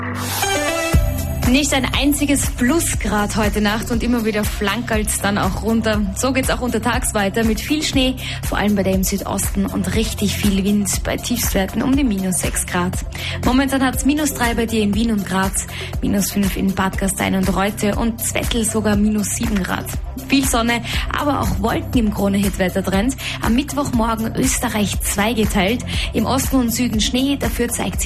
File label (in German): Moderation